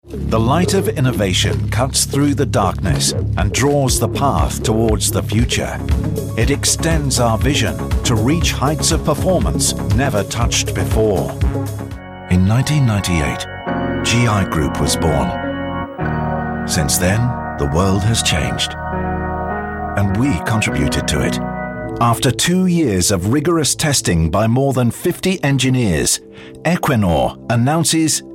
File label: corporate